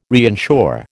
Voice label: "reinsure", American